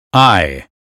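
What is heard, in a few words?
"eye", American English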